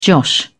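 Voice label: "josh", British English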